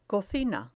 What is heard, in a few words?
"cocina", Spanish